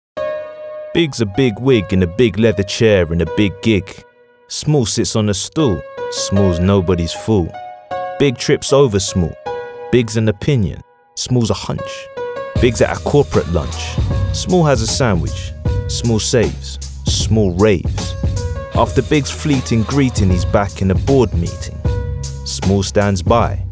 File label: Urban